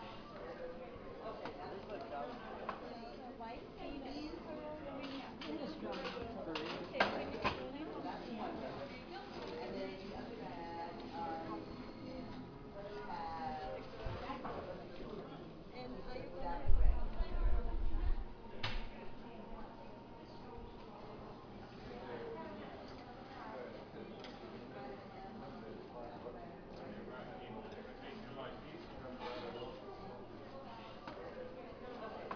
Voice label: chatter